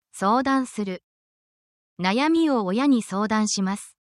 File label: japanese actions essential phrases